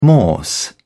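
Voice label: "morse code", British English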